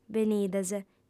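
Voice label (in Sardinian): "benides", female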